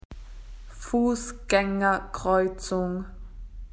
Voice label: "pedestrian crossing", AU; PictureDictionary